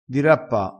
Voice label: "dirappa", vocabolario dialettale

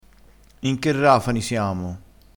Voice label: "rafani", crespinese, vocabolario dialettale

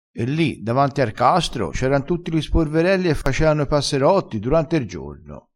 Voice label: "sporverello", vocabolario dialettale crespinese

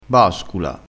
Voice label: "bascula", vocabolario dialettale

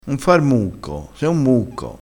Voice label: "mucco", crespinese

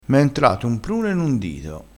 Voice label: "prune", vocabolario dialettale

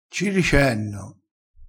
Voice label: "ci ricenno", crespinese

